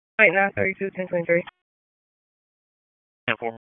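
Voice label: Sheriff's Office Dispatch 1, p25; wake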